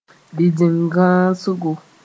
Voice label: "bĩndingãoosgo", Mooré